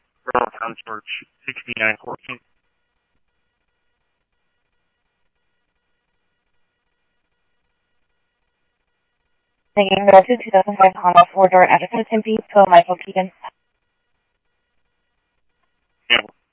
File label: Police, scanner audio trunk; H-Scottsdale